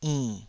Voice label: "inn3", taiwanese syllables

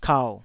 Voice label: "kau3", taiwanese syllable

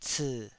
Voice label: "cii3", taiwanese

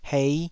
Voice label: "hei5", taiwanese

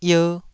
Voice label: "ier2", taiwanese syllables

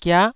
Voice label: "gia9", Taiwanese syllable

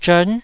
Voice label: "zhern9", Taiwanese syllable

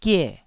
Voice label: "gie4", Taiwanese syllable

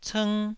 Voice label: "cng1", taiwanese

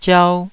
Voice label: "ziau2", Taiwanese syllable

